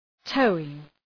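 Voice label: "towing", English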